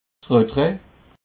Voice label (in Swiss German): "retrait", alsace